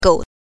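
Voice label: "gou" neutral tone, pinyin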